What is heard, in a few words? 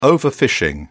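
"overfishing", British English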